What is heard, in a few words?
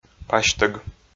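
"пасьтӧг", Komi, male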